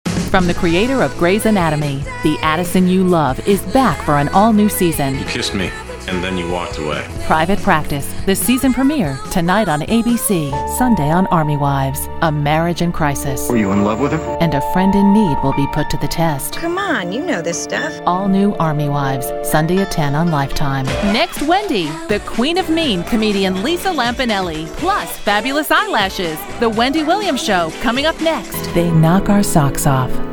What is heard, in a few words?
promos